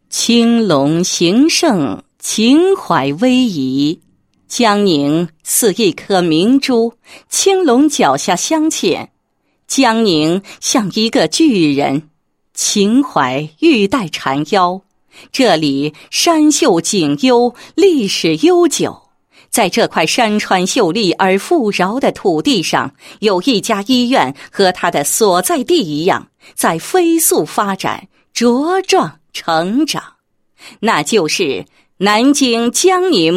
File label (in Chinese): 女 医院专题 宣传; 博爱医院